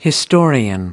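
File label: "historian", American English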